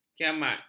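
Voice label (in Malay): "kiamat", Malay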